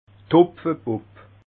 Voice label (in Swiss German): "hopfebubb", alsace